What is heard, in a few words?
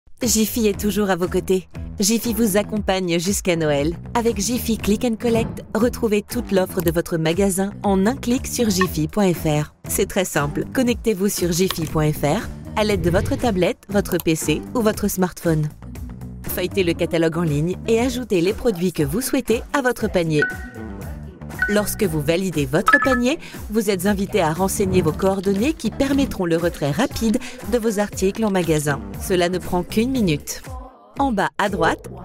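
French corporate; GoLocalise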